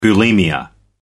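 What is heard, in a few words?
"bulimia nervosa", British English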